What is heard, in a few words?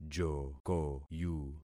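"jokoyu", concatenated syllables; Affixing experiment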